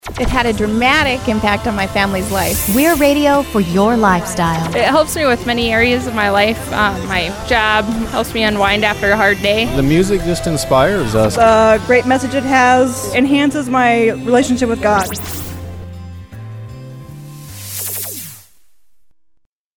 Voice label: listener sweeper; Easter Imaging